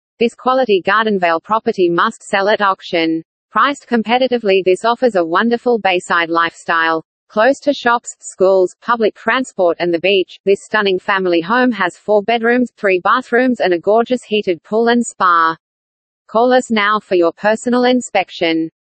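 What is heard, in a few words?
Australian presenter, real estate videos; My Real Estate Videos; Melbourne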